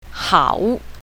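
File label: "hao3", Chinese pinyin, south, female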